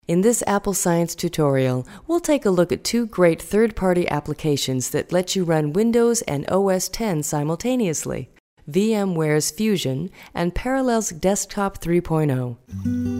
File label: female narration